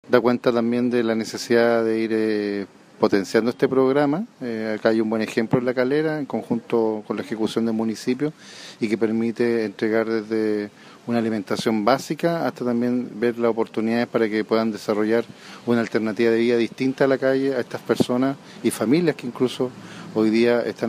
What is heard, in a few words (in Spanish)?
Cuña Gobernador de Quillota por Ruta Calle La Calera